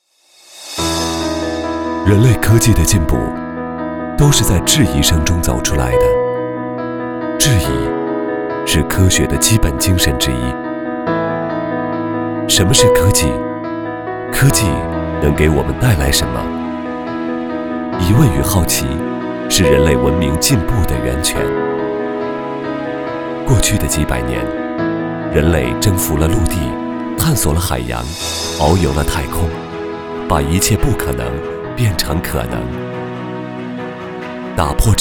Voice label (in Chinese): 男 独白; 小视科技